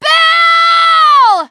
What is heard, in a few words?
teengirl, voice; c6 dlc3 bill dies